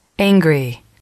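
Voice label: "angry", female, English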